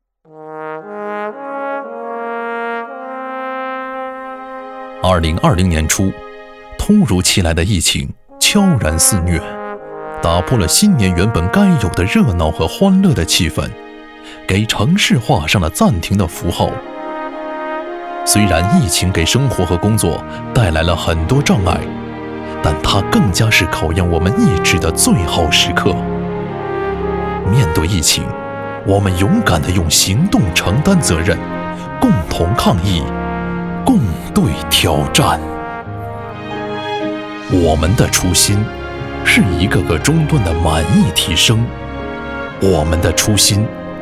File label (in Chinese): 男, 解说专题; 疫-后的我们